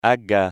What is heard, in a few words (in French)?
g son, phonetique